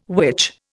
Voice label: "which", Indian